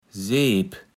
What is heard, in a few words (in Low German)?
"Seep", platt